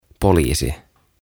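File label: "Poliisi", Finnish; Policeman; OTAVA KONSERNI; tuhatsanaa; aantamisohjeet suomeksi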